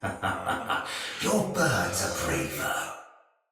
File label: Sight Lost Shout Thawtide Hunter; Barks Battle